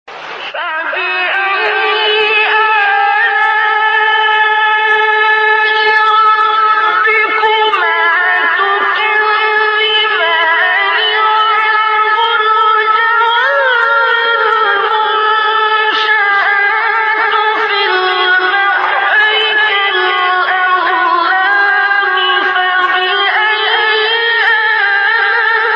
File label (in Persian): طنین زیبای سوره الرحمن; استاد عبدالباسط